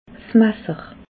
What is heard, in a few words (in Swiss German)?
"masser", alsace